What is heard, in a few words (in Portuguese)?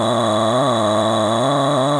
Intenso, Ancoras Sintetizadas